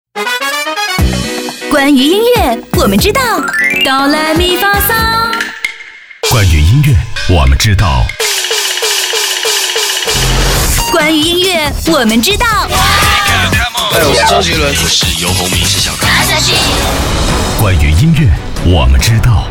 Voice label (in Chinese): 女 电台宣传 年轻活泼